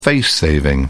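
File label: "face saving", British English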